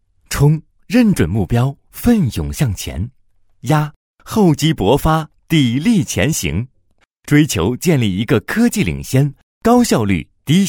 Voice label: 汽车年轻时尚

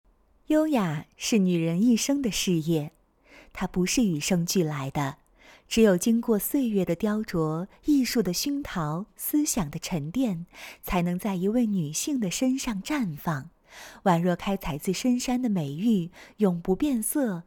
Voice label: 新媒体女性优雅知性

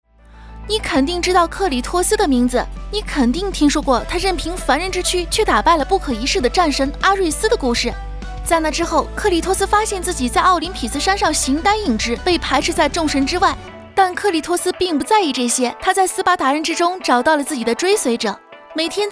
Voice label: 游戏词解说

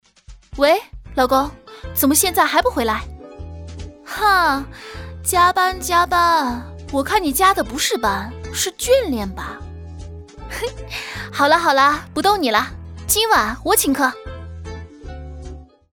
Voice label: 接地气正常音温暖配音

